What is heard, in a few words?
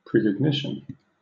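"precognition", British English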